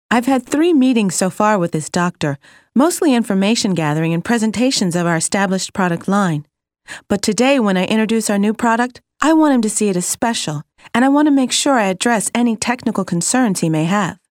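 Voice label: 30s African American Female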